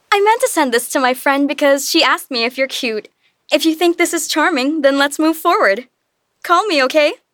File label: English, flirty, confident, playful